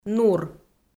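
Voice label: "нур", Komi, female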